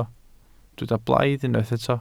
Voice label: "blaidd", Welsh